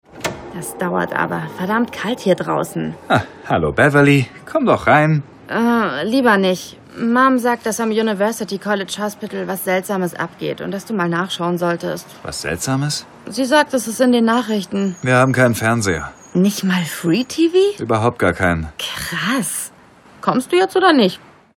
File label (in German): Hoerspiel; FluesseLondon